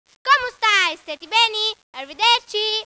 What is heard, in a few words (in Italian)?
SALUTO SARDO